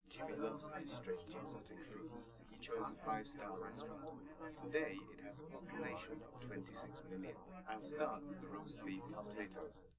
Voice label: male live rec